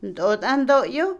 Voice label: toalla, Otomí, mujer; Ropa; INALI

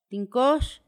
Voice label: pequeño, Paipai; Vocabulary; INALI

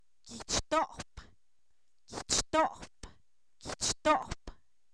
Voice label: cana, Zapoteco, san miguel albarradas; objetos inanimados

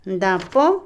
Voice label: hierba, pasto, Otomí, mujer; INALI

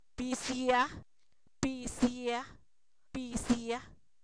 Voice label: Zapoteco, san miguel albarradas; pozo; objetos inanimados

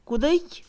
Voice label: colgar, Mixe, Mujer; Acciones; INALI

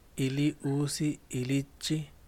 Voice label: bebé, Yaqui

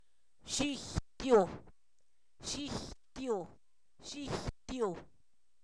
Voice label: cebolla, Zapoteco, san miguel albarradas; comestible

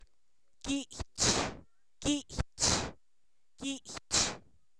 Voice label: Zapoteco, san miguel albarradas; papel; objetos inanimados

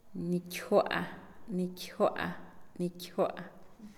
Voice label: "pecho", Pame; El cuerpo; INALI

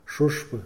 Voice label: "música", Mixe, hombre; Moderno

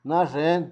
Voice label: "ancho", Zapoteco Magdalena Ocotlan; Adjetivos; INALI; Haspelmath

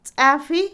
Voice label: molar, muela, Otomí, mujer; INALI

